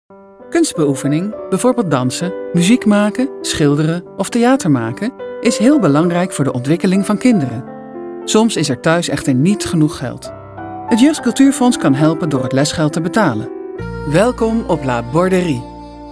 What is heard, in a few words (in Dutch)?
Stemacteren